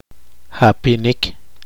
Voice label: poqomchi; bostezar

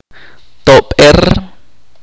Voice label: "saltar", chorti

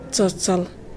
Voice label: Yapese cough

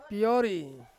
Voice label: "piori", Ansus; stars

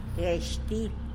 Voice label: "gyesh til", tlacolula; bread

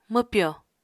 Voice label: "mwpyò", Apatani

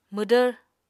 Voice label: "mwdvr", Apatani

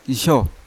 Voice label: "isyo", Yawa; stick; unat

